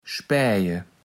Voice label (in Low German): "spaeje", Platt